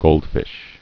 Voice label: "goldfish", English